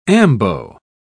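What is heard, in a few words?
"ambo", English, British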